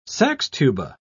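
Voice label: "saxtuba", English, British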